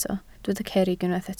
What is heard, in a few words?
"cerrig", Welsh